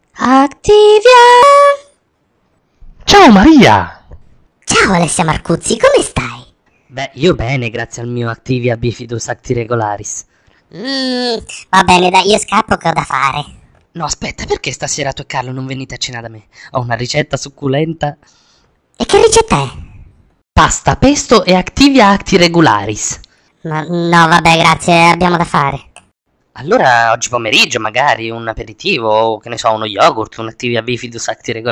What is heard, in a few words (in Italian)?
pubblicita; activia